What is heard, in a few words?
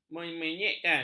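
"memenyekkan", Malay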